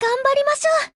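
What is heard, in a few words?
SKILL Japanese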